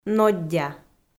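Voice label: "ноддя", Komi, female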